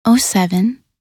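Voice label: "07", English; minute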